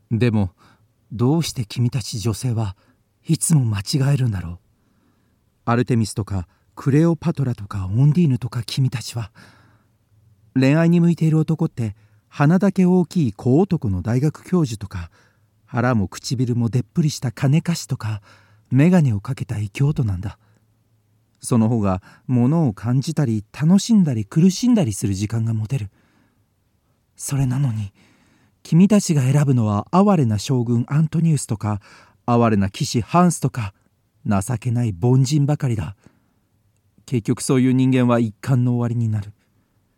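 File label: Japanese, Narration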